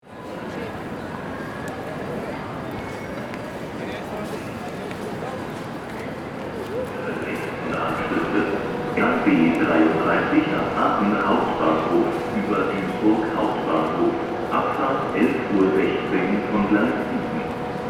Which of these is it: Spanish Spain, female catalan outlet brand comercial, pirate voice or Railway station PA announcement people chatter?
Railway station PA announcement people chatter